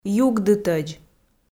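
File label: "югдытӧдз", Komi, female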